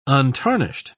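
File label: "untarnished", British English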